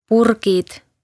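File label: "Purgid", Estonian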